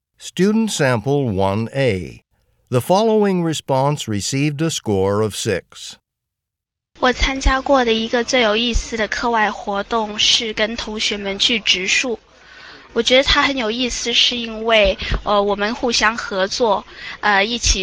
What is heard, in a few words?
chinese conversation